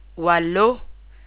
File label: "Walo", Tagalog